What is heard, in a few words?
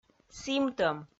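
"сімтӧм" Komi, female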